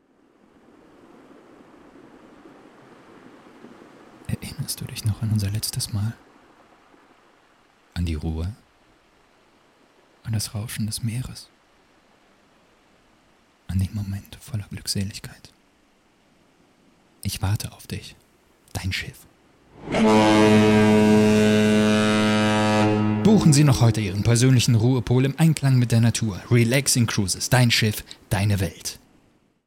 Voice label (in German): Werbung Relaxing Cruises; voice